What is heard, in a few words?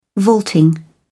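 "vaulting", British English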